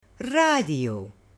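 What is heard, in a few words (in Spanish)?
"RADIO", Spanish, female; AppLenguaje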